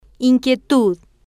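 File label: "in-quie-tud", Spanish, female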